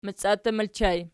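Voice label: "maciattur" ergative plural, Archi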